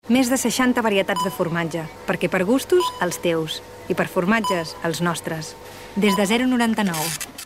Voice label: female catalan supermarket comercial